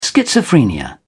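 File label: "schizophrenia", British English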